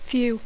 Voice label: "few", English